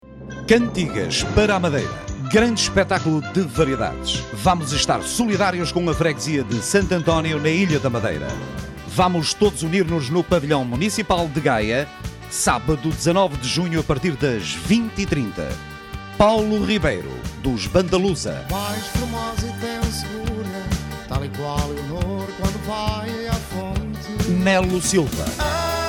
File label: spot; madeira